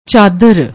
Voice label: "chaadar", Punjabi; ਚਾਦਰ

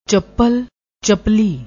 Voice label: "chappal", Punjabi

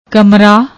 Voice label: "kmra", Punjabi; ਕਮਰਾ

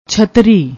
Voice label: "chhatri", Punjabi; ਛਤਰੀ

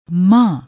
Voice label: "manh", Punjabi; ਮਾਂਹ

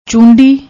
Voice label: "choondi", Punjabi

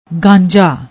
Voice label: "gaanja", Punjabi; ਗਾਂਜਾ

